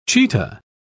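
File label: "chetah", British English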